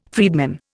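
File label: "freedman", Indian English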